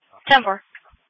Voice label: Sheriff Dispatch; sdr